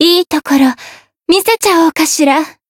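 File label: Voice chant japanese; ewella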